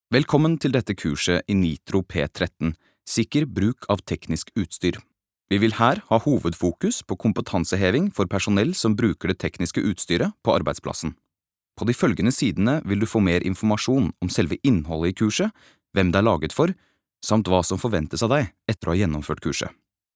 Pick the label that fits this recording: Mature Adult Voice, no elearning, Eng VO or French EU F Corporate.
no elearning